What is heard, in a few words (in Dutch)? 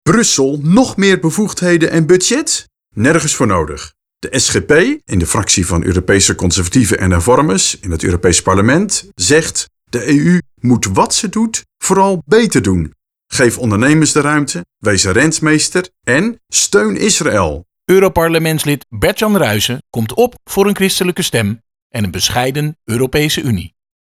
voice-over; add-on SGP; ZUIVERMEDIA